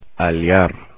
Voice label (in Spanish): "aliar" Spanish; infinitive